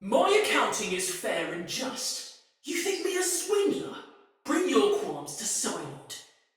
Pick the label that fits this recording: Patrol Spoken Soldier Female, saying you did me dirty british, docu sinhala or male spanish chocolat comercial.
Patrol Spoken Soldier Female